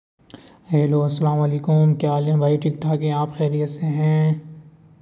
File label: voice message